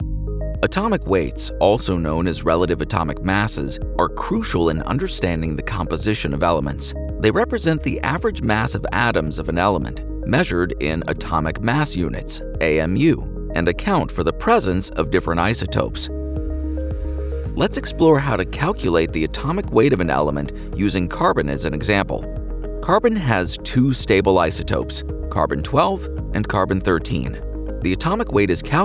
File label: eLearning; Atomic Weights